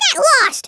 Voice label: "go away", American; Worms Armageddon